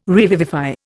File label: "revivify", Indian